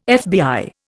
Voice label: "FBI", Indian